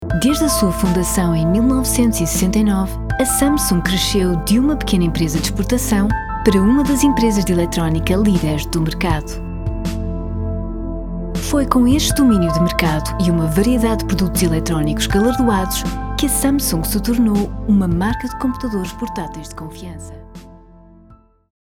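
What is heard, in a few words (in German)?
Portuguese, Kommentar Sanft; Fremdtake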